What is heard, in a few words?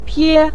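"pie", Mandarin Chinese neutral tone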